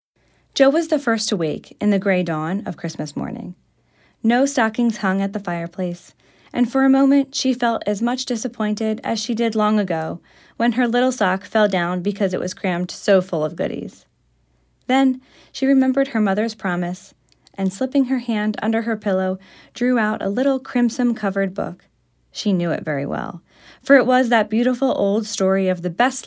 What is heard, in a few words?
Narration